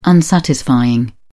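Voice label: "unsatisfying", English, British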